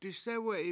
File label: I step over there, Washo; disEwe7i